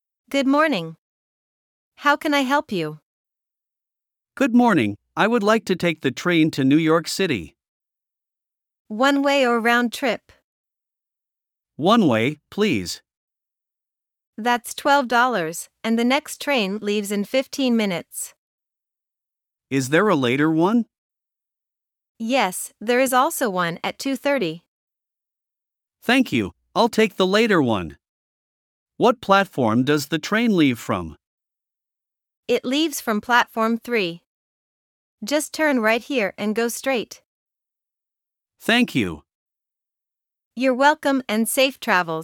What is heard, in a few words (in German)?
Dialog; Am Bahnhof